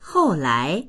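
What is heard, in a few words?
"hou4lai2", Mandarin Chinese; 后来; その後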